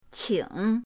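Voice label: "qing", Mandarin pinyin, third tone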